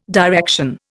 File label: "direction", Indian